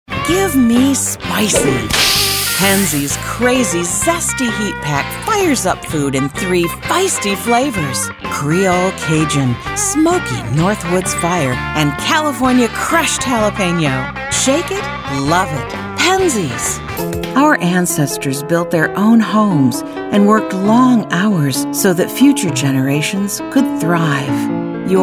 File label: commercial